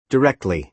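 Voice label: "directly", American English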